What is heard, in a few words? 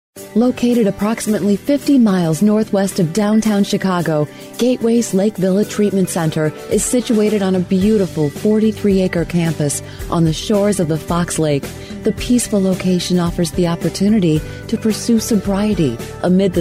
Narration